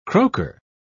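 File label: "croaker", British English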